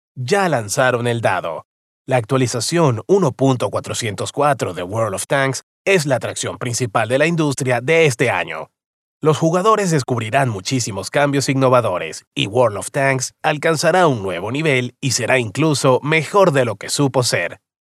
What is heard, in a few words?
Spanish Latin American animation